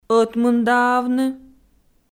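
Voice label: "ӧтмындаавны", Komi, female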